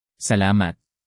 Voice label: "salamat", tagalog; thank you